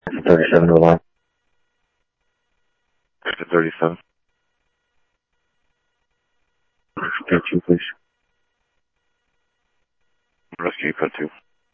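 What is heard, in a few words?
fire radio dispatch, Phoenix Fire; H-Scottsdale; PHXFD K7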